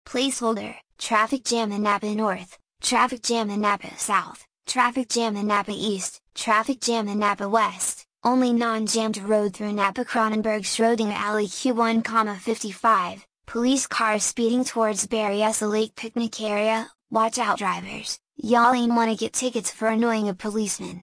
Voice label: Voices Gas CB radio Loop; this is the zodiac speaking 2020 gamerip switch ps4 xbox one pc macos

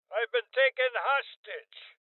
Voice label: Voices Hostage Taken Male Old

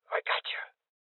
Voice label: Voices Heal Male Old; rebel cops 2019 gamerip

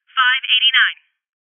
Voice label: Voices Trap Bomb Spotted Female

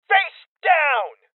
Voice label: Voices Order To Surrender Male Young

